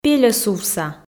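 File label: "пельӧсувса", Komi, female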